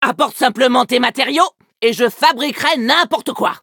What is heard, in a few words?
levelup, fr; cookierunwiki